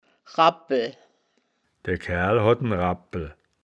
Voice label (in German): "rabpel", wittlich